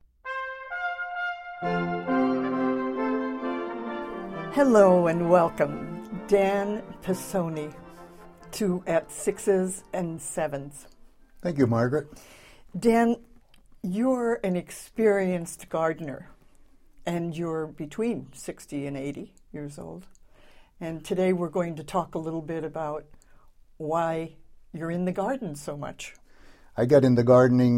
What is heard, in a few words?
Podcast Sample